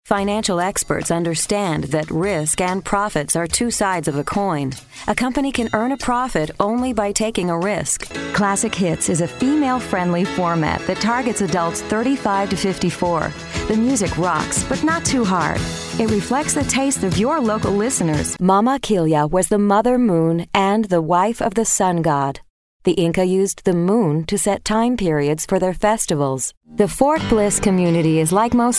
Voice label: narration